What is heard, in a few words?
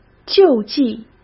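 "救济", Mandarin Chinese; chinese-flashcards; hsk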